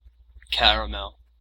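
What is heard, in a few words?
"caramel" English, American accent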